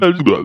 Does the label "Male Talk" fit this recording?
Yes